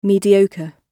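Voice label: "mediocre", British English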